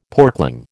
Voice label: "porkling", American

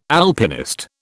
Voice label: "Alpinist", British

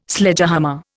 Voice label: "Sledgehammer", Indian

